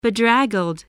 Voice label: "bedraggled", English

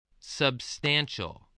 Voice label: "substantial", spelling

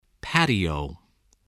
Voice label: "patio", English, voice

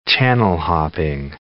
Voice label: "channel-hopping", English

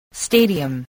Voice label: "stadium", voice

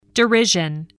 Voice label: "derision", English

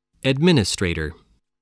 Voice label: "administrator", English voice

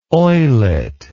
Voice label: "oillet", English

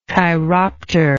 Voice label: "chiropter", English

